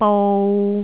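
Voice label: "bou3", Cantonese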